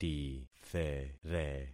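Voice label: "tithere", concatenated syllables; Affixing experiment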